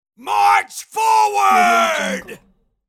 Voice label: warrior battle cry march forward